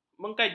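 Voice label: "mengkaji", Malay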